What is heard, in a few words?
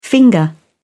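"finger", British English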